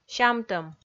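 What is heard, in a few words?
"сямтӧм", Komi, female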